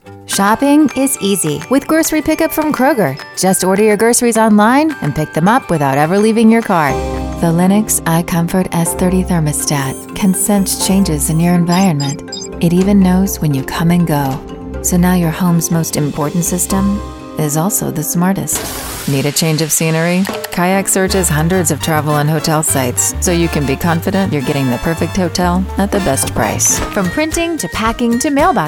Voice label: Commercial; Voice-Over Girl